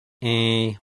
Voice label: nasalized close-mid front unrounded, IPA sounds